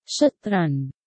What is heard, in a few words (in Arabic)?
Satrang, Arabe